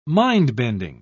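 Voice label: "mind-bending", British English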